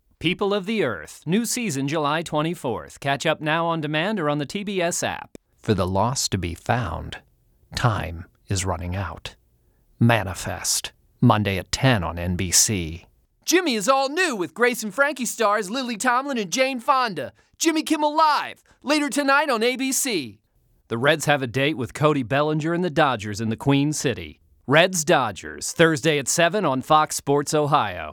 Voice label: Promo Reads

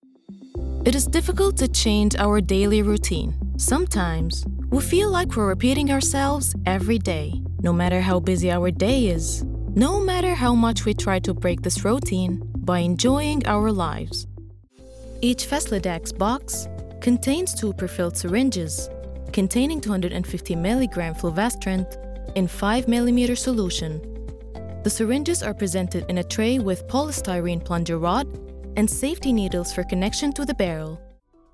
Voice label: Medical English

